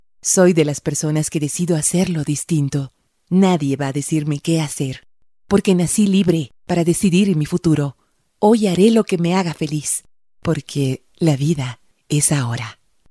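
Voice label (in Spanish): locutora narración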